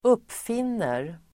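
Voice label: "uppfinner", Swedish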